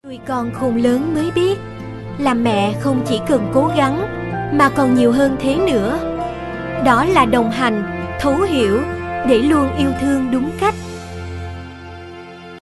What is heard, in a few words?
Voice off TVC